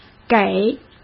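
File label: "给", Mandarin Chinese; chinese-flashcards; hsk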